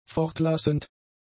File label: "fortlassend", German; adjectives